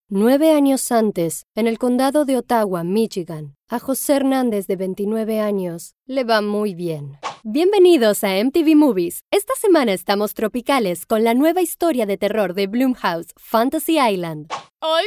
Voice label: Doblaje

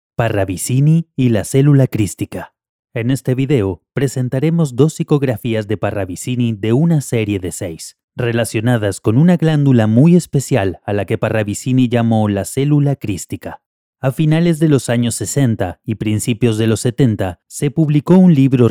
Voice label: NARRACION ESPAÑOL NEUTRO DOCUMENTAL

